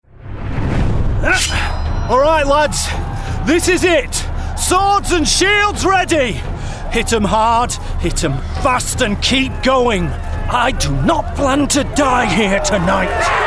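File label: Gaming Warrior Northern, male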